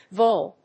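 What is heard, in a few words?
"VTOL", English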